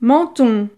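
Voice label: "menton", French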